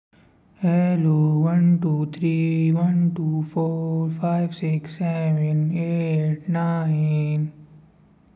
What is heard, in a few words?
voice message